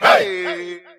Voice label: "hey", voice

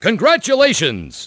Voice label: "congratulations", announcer

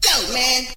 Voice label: yo man, cartoon; ooh ahh

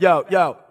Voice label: "yo yo", Voice

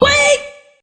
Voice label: "wait", male

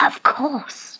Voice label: of course, woman

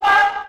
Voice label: "what" echo

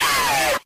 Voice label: ay, distorted; Voice

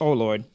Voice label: "oh lord", expletives, g-rated swears

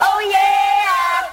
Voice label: "oh yeah" cartoon

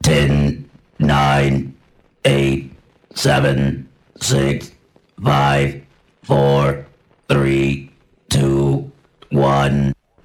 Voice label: "1 2 3" robot; numbers and letters

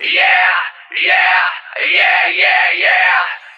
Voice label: "yeah yeah yeah yeah yeah", voice; ooh ahh

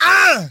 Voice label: "uh", male; ooh ahh; uh um

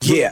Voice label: "yeah", group of men

